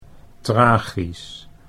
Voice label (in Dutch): "tragisch", Dutch; 'tragical'